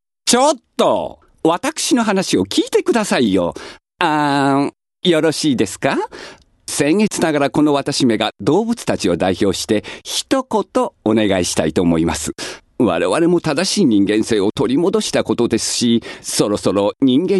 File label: male voice